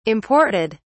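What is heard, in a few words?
"imported", British English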